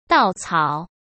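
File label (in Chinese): "稻草", Mandarin Chinese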